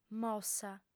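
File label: "mossa" Sardinian, female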